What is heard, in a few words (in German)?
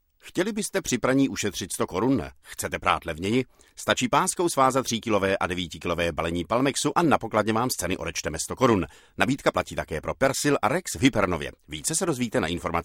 Tschechien M